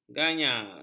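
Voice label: "ganyar", Malay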